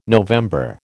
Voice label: "November", American